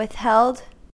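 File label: "withheld", American English; pronunciations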